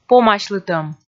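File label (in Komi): "помасьлытӧм", Komi, female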